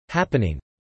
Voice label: "happening", English